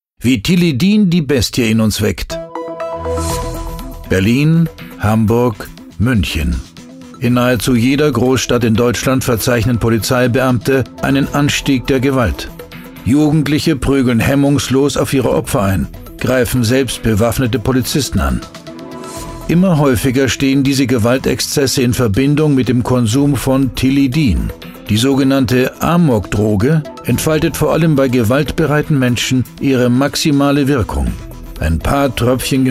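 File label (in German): Doku, deutsche Synchronstimme; Stimme von Jack Nicholson, Anthony Hopkins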